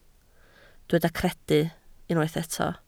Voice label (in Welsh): "credu", Welsh